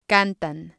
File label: "cantan", spanish